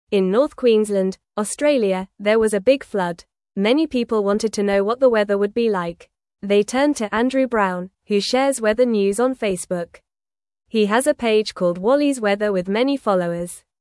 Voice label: English Newsroom Lower Intermediate FAST Reading; Helping Friends with Weather News in Australia

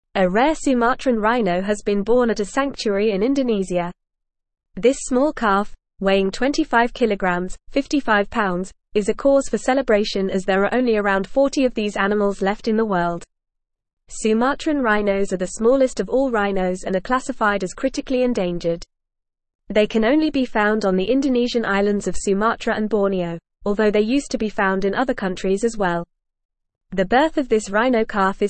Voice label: English Advanced FAST Reading; English Newsroom; Rare Sumatran Rhino Born in Indonesia Boosting Conservation

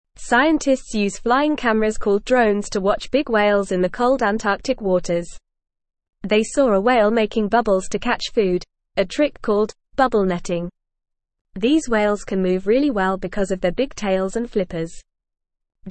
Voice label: English FAST Reading; Drones help scientists study whales in Antarctica

